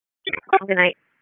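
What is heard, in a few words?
EMS Dispatch, p25; wake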